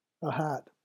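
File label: "a hat", English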